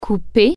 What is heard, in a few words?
cut, french